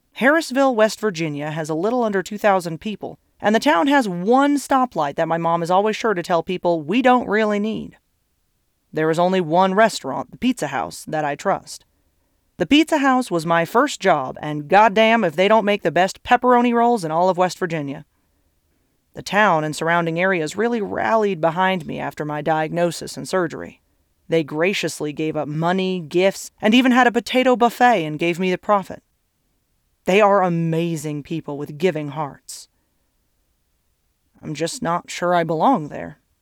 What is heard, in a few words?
Memoir Place Narration